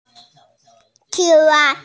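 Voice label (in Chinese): "qing1wa1", Chinese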